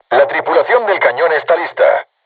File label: voice message art charged, crew tanks spanish